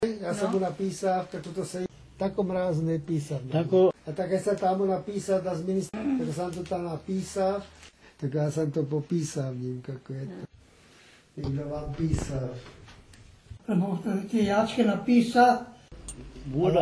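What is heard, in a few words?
Long and falling i: pîsāf, pîsat 'write'; l-participle masuline singular; infinitive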